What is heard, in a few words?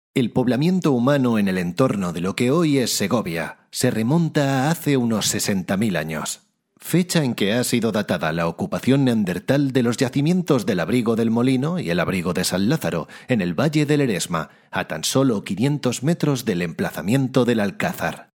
locutor spanish voiceover